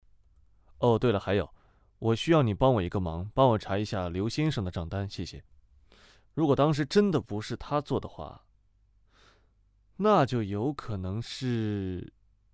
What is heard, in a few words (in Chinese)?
清冷男青年